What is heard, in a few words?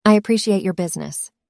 synthesized audio